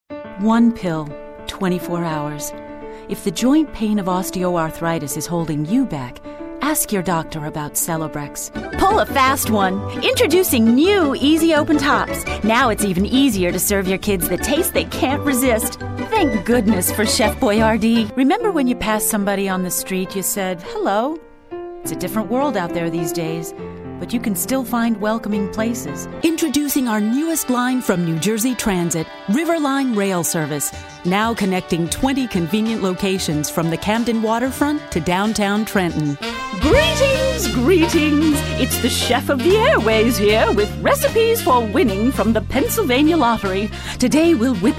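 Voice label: commercial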